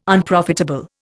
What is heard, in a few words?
"Unprofitable", Indian